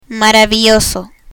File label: "maravilloso", Spanish